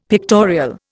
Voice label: "pictorial", Indian; Dictionary